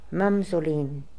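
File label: "Mamzolin", pronunciations; Fourth Way; Beelzebub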